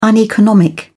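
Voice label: "uneconomic", British English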